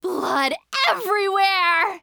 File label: Announcer Spree First Blood; Evie